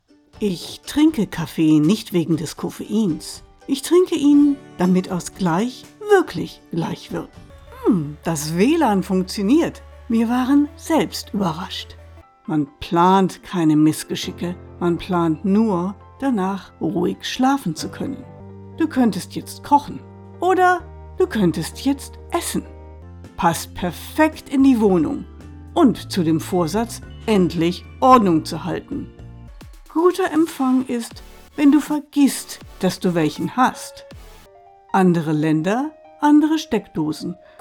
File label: Commercial Werbung German